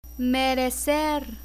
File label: "merecer", Spanish; learn spanish